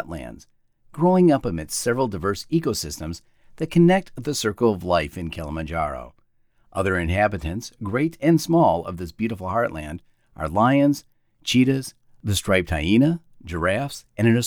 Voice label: Elephant Video Narration